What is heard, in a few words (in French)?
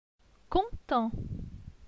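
"Content", French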